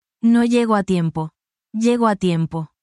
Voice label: spanish, spanish phrases